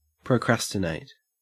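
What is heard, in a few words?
"procrastinate", Australian English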